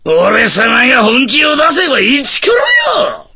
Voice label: voice, dubbing